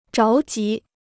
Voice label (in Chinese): "着急", Chinese